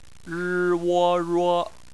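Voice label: "ruo" bopomofo, Chinese; phonetics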